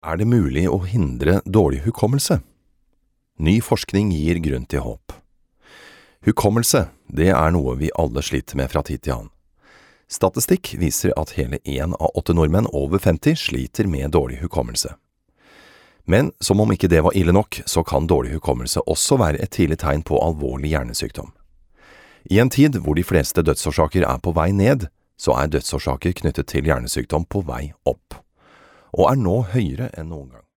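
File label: no elearning, Norwegian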